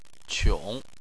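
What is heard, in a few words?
"qiong", Chinese, tone 3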